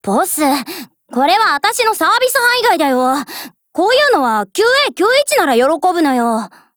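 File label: DIALOGUE, Japanese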